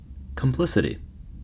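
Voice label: "complicity", US English; pronunciations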